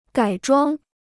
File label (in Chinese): "改装", Chinese